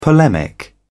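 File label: "polemic", British English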